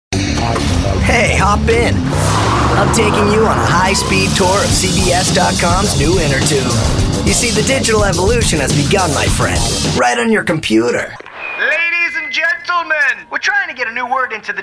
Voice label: commercial